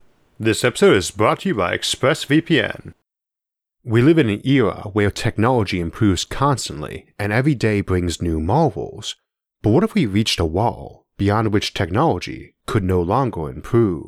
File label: technological stagnation narration only; isaac arthur